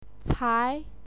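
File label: "cai", Mandarin Chinese, second tone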